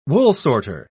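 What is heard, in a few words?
"woolsorter", British English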